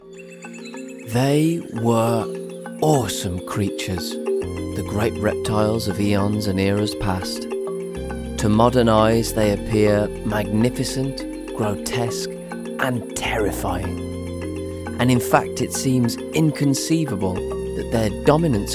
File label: Narrative